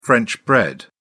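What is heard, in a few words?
"french bread", British English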